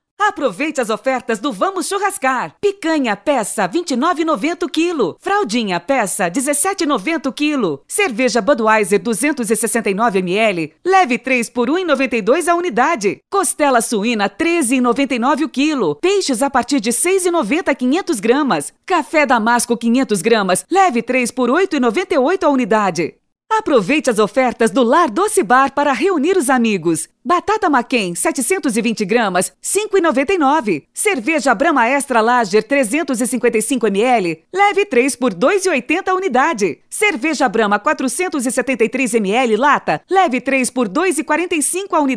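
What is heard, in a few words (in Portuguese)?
Feminino, Português - Brasileiro, Varejo, Voz Padrão - Grave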